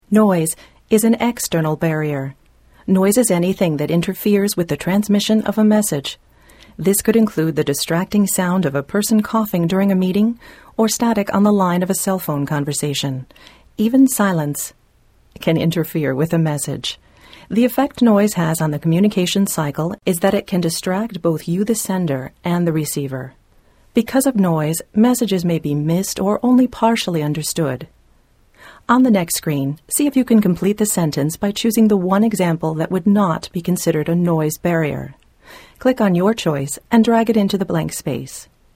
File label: Narration English